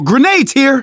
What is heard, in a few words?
spot grenades, survivor voice; manager; Left 4 Dead 2; left4dead2 dlc2